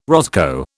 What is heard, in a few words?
"roscoe", British